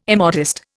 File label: "immodest", Indian